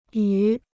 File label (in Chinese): "与", Chinese